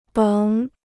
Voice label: "甭", Chinese

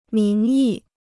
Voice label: "名义", Chinese

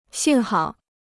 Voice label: "幸好", Chinese

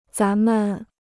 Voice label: "咱们", Chinese

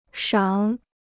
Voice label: "赏", Chinese

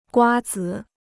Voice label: "瓜子", Chinese

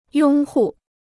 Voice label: "拥护", Chinese

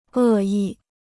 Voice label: "恶意", Chinese

